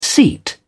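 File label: "seat", British English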